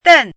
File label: "den4", chinese; chinese-voice